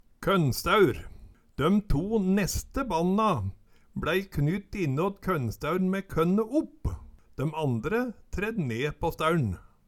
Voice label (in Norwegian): konnstaeur, Numedalsmål